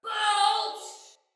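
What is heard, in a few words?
See Player Soldier Female; Barks Battle; Sinecure Soldier Female B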